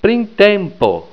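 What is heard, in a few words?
"printempo", esperanto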